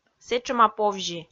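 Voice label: "сэтшӧма повзи", Komi, female; kpv audio dict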